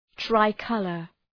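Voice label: "tricolor", English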